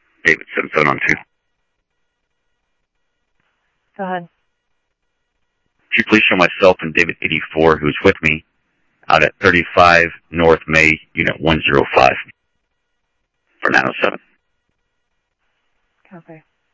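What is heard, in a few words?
Simulcast police department, scanner audio; Mesa Simulcast; MESA PD 2 Centrl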